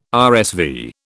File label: "RSV", British